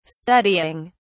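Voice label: "studying", English, uk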